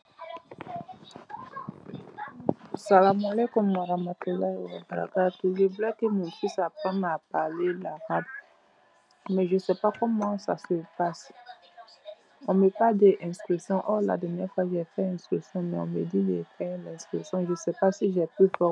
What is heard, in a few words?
voice message